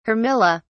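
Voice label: "armilla", British English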